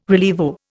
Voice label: "rilievo", Indian